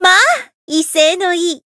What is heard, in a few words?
encount, jp; sincere